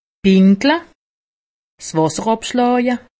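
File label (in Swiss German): "pinkle", Alsatian; medecine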